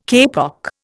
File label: "kapok", Indian